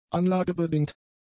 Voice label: "anlagebedingt", German; adjectives

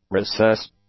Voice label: "recess", English; nouns

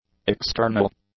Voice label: "external", english; adjectives

